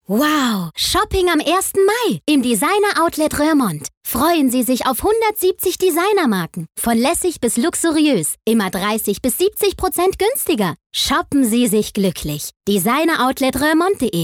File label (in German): Werbung Freundlich